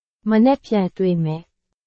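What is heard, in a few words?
Burmese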